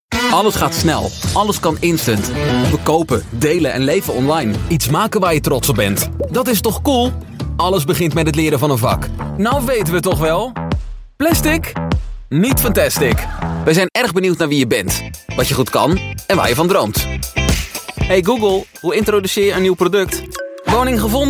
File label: dutch voiceover natural